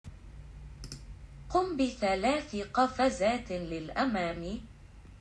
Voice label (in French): arabe